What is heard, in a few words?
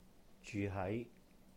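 "jyuh-hái", Cantonese; live at; 住喺; zyu6hai2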